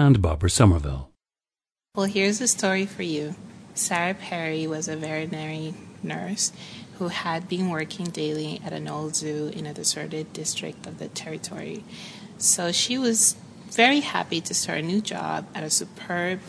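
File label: new york, International Dialects of English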